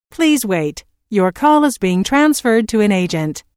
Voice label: Telephone prompt: Please wait - Your call is being transferred to an agent, Pre-recorded telephone on-hold message; Recorded Voice Sound Effects; Lynne Music